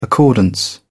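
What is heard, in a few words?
"accordance", British English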